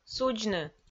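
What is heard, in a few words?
"судзны", Komi-Zyrian, female; audio dict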